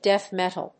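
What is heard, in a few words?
"death metal", English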